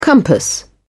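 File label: "compass", British English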